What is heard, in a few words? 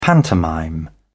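"pantomime", British English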